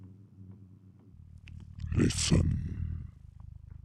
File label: demonic techno voice "listen"